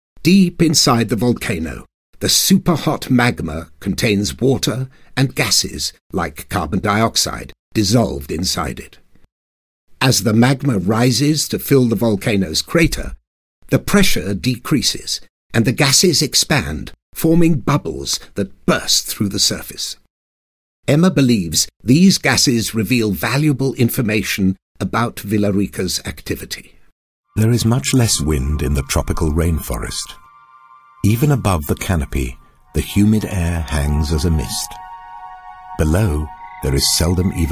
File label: Documentary; Natural Accent: RP